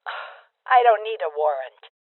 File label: Voices Open Safe Female Old